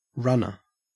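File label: "runner", Australian English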